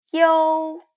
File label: "you", Chinese